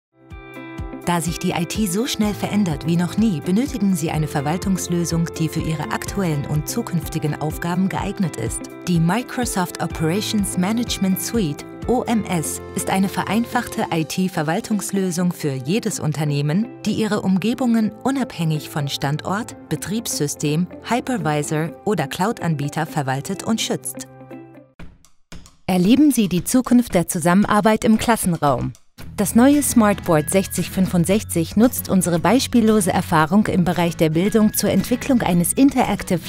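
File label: German explainer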